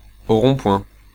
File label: French male